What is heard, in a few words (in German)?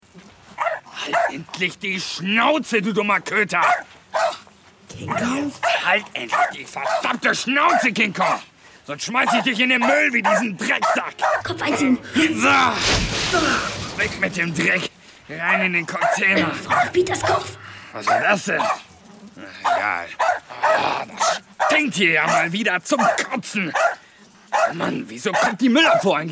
Schauspiel Variabel